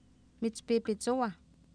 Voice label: "mispiipitsowah", Oapan, female; Oapan minimal pairs 1998